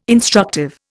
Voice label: "instructive", Indian

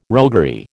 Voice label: "roguery", American

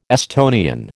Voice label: "Estonian", American

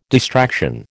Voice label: "distraction", American

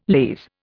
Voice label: "lees", Indian

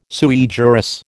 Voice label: "sui juris", American

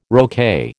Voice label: "roquet", American

